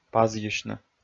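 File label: "пазйысьны", Komi, male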